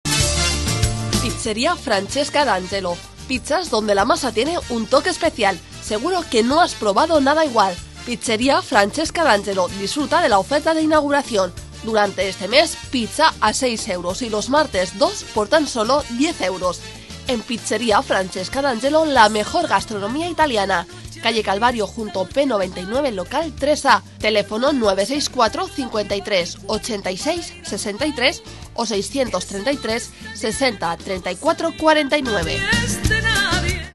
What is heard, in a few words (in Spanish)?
anuncio pizzeria; dangelo